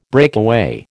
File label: "breakaway", American